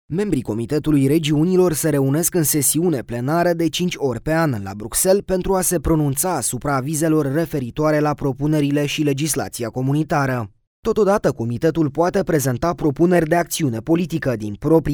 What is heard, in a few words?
Romanian, voiceovers other languages kids teens; Romanian voice overs / Male / Voice; foreign languages